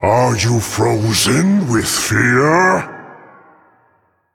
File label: Male Elemental; Lokholar